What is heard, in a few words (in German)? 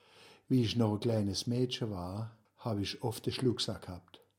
hoerbeispiel rheinfraenkisch mannheim